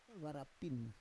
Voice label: "vara pin", Roon